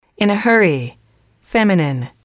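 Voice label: "in a hurry", English, female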